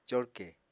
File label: "corkè", Apatani